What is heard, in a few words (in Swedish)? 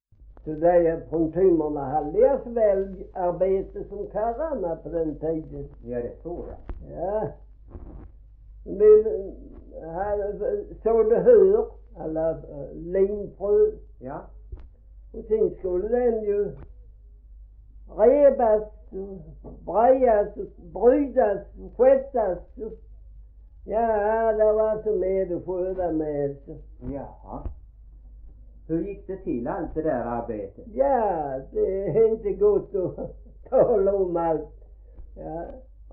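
Gråmanstorp Skåne, Svenska sagor dialekter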